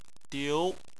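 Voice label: "diu" second tone, Chinese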